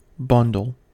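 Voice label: "bundle", American English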